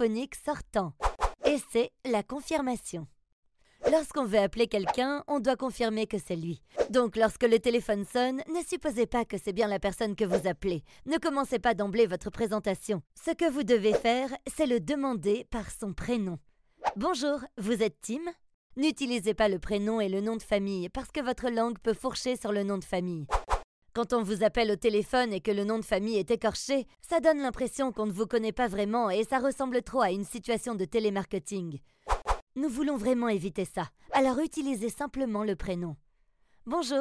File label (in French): phone presentation; ninjas